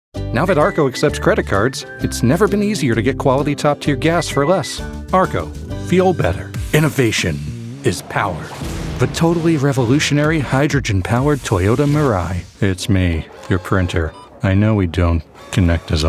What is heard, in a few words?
commercial